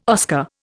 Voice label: "Oscar", Indian